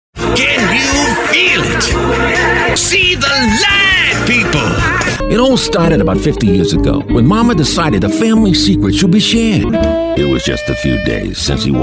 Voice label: character